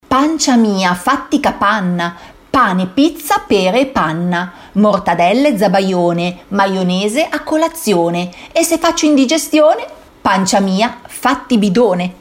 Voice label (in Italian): filastrocca